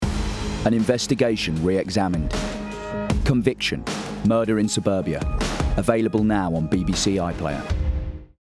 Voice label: promo